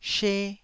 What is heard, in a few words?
"she1", taiwanese